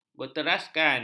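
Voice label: "berteraskan", Malay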